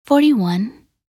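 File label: "41", English; minute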